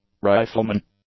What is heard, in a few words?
"riflemen", English